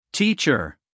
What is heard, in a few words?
"teacher", us male; everyone-can-use-english